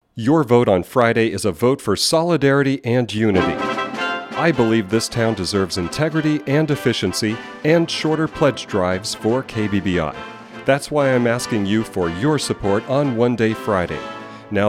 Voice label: promo; KBBI